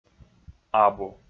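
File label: "абу", Komi, male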